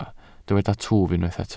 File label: "twf", Welsh